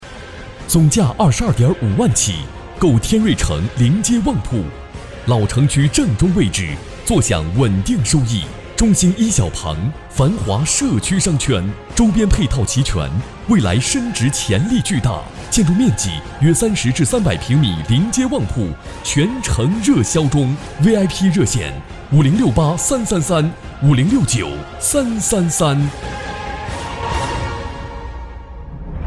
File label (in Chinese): 地产企宣大气磅礴激情洋溢